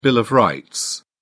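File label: "bill of rights", English, uk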